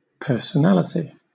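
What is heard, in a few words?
"personality", British English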